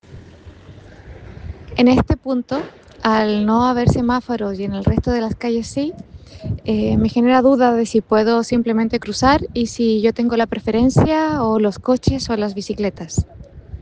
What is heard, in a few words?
Voice message